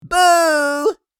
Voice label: Playful boo surprise jolly elf; christmas

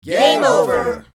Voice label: Crowd shouts game over

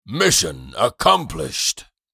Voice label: Mission accomplished game win male voice; success

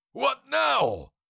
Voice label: Cartoon lion voice, "what now"

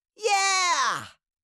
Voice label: "yeah", Cartoon little child voice

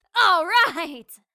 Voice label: Female warrior alright

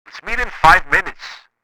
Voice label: Walkie talkie conversation meet in five minutes

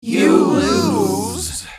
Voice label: Crowd shouts you lose; game over

